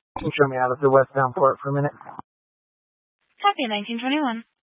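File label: Dispatch, Scanner audio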